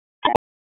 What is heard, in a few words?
Police Southeast District Tac; Raleigh; Tac 2; wake; p25